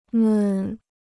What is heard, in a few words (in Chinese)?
"们", Chinese